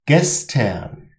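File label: "gestern", German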